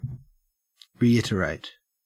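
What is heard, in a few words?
"reiterate", Australian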